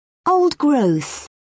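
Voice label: "old growth", British English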